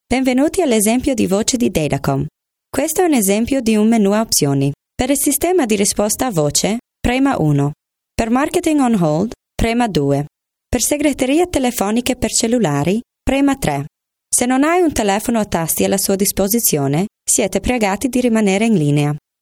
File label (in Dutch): Voice over Italiaans vrouw